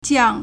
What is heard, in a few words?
"jiang4", Chinese